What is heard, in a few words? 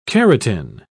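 "carotin", British English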